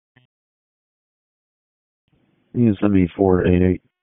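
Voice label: Police Northeast District Dispatch, Raleigh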